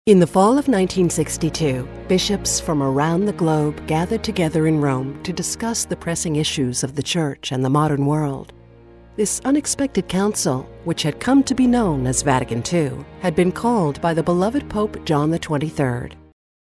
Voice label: Storyteller; Documentary Voice Over Narration; Magestic; Vatican II

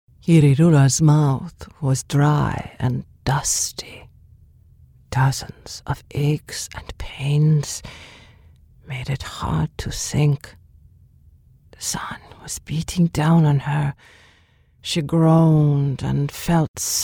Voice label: Dramatic Quiet

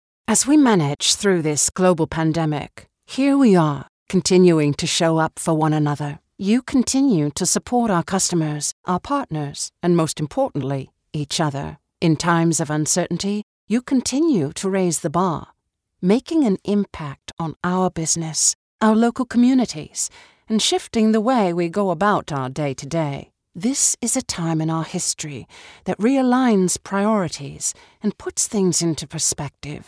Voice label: British; COVID

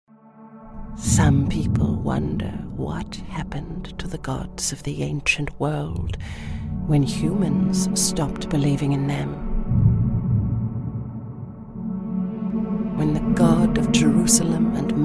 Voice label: British Intense Dramatic

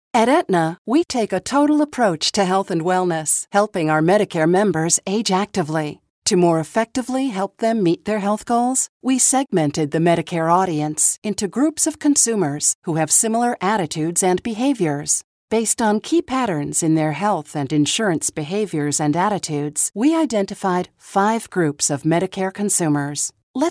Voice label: eLEARNING; AETNA

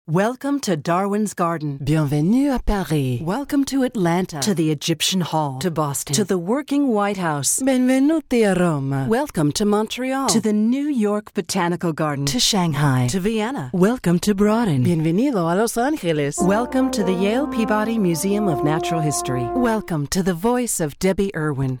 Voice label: welcome introduction audio tours

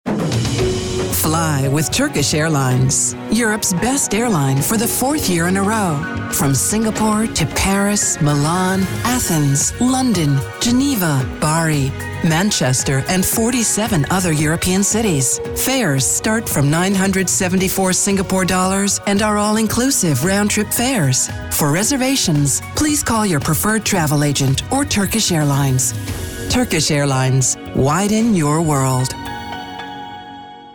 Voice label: Deep Rich Sophisticated Global; Voice Over; Turkish Airlines

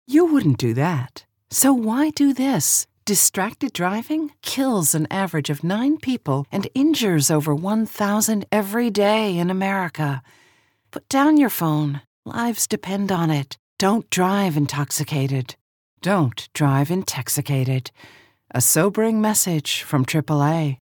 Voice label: PSA Confident Relatable

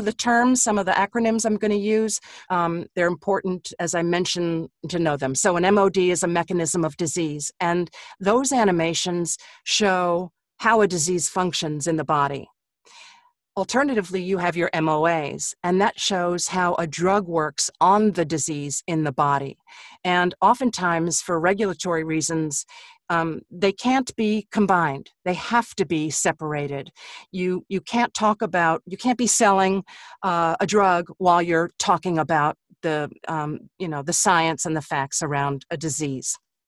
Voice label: Medical Narration Training

